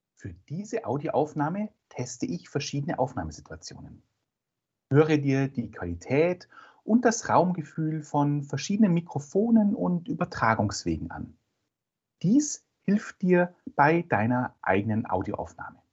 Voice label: podcast online, online VideoChat with echo cancellation & external microphone (lavalier microphone)